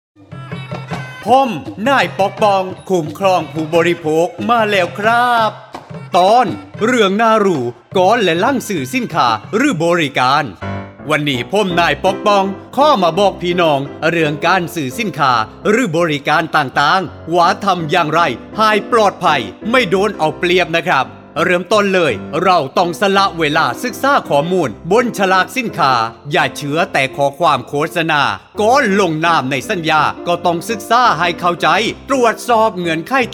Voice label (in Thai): สปอตวิทยุ สคบ. ภาคใต้